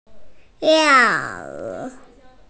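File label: "dance", hearing impaired; tiao4wu3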